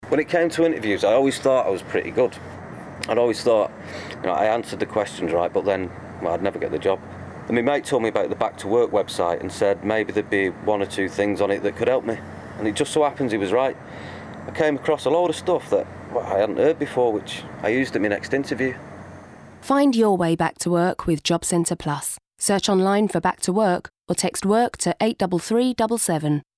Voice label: Natural, Everyman, Relatable; Back to Work